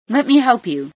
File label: let me help, female